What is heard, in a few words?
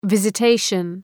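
"visitation", English word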